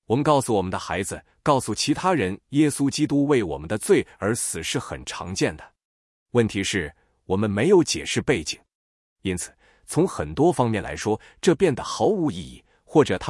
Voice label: chinese zh-CN; YunjianNeural